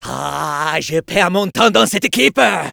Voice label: Medic jeers, fr